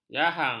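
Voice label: "jahang", Malay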